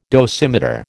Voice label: "dosimeter", American